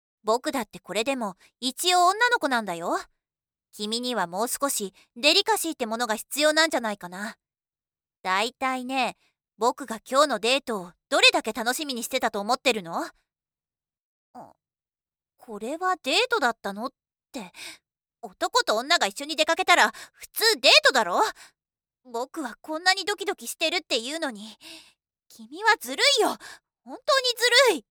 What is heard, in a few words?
female